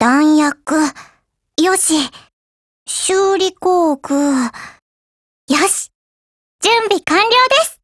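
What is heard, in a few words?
GO ATTACK, Japanese; ChauchatM1915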